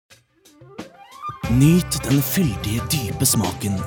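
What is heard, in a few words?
Norwegian soft sell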